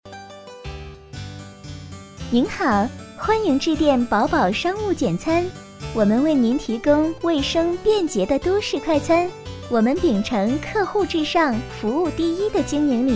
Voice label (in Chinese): 彩铃 甜美; 商务简餐